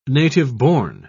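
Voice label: "native-born", British English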